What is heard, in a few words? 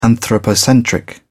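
"anthropocentric", British English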